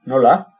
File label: "how", basque